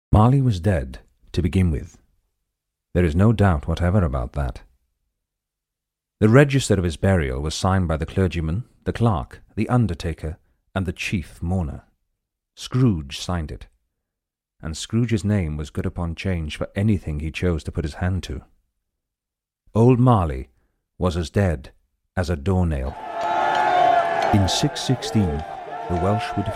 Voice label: Narrative Compilation